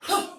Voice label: Attack, Soldier Female, Battle; Sinecure

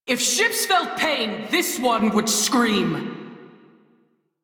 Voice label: Crew Ship Low, Female; Dialog; en; Warframe; Railjack Crew; New Loka

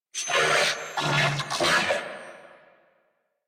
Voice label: Attack Grineer Male; New War; Narmer

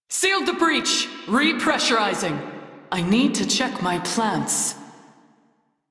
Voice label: Crew Fix Breach, Female; Dialog; en; Warframe; Railjack Crew; New Loka

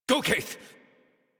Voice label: Horse Sprint Adult; Warframe; Duviri

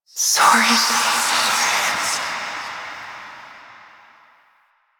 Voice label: Whispers; Sorren; Warframe; Quests; Jade Shadows

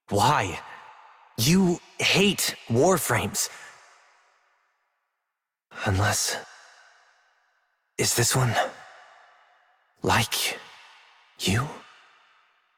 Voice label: Operator Voice; Warframe; Jade Shadows Quest

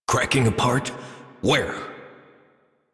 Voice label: Adult, en, Dialog; Theatre; Warframe; Duviri Paradox Quest

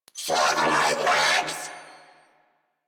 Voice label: Reload, Female; New War; Narmer Grineer

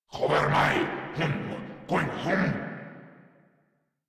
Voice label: Cover Me Grineer Male

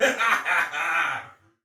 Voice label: Pre Death Soldier Male; Sinecure

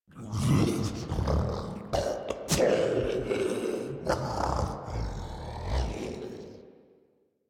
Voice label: Idle Grineer Ghoul Devourer, en

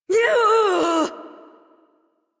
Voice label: Adult, en, Dialog; Warframe; Duviri Paradox Quest

